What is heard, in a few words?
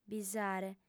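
"bisare", female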